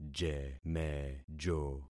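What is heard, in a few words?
"jemejo", concatenated syllables; Affixing experiment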